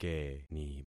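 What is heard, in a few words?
"keni", concatenated syllables; Affixing experiment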